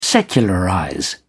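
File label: "secularize", British English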